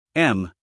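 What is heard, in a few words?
"m", US English, male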